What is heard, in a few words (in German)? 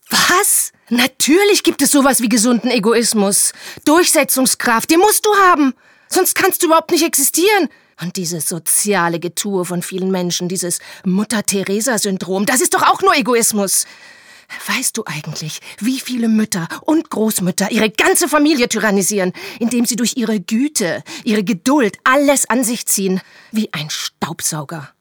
Dialog; gesunder Egoismus